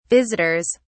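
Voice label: "visitors", English, British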